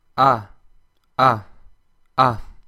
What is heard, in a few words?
sound ʌ